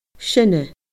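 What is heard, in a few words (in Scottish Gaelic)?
"sine", Scottish Gaelic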